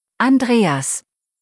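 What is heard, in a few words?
"Andreas", German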